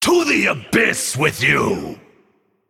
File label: Male Naga Play